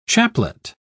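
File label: "chaplet", British English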